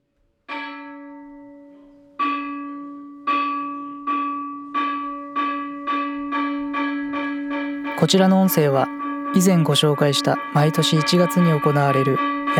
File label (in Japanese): お寺の楽器の共通点ナレーション入り